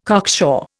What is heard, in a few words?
"cocksure", Indian